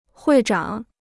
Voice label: "会长", Chinese